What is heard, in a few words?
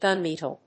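"gunmetal", English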